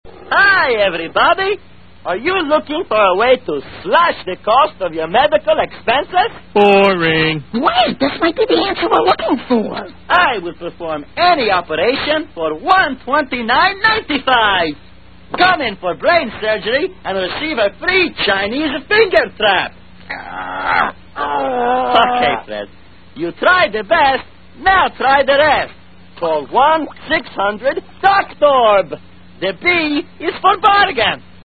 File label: commercial; drnick